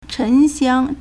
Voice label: "chen2xiang1", Chinese